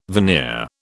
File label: "veneer", British